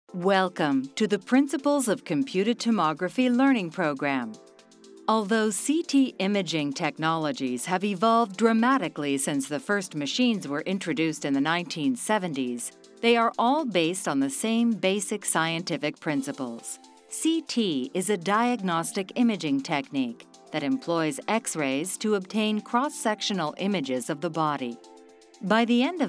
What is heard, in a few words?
Medical Narrator; CT Scanning